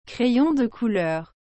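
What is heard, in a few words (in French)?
"crayon de couleur", French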